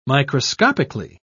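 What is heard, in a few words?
"microscopically", British English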